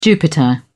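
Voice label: "jupiter", British English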